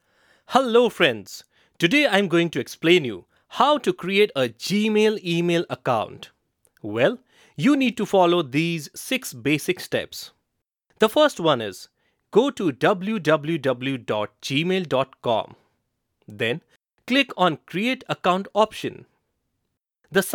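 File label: e-learning eng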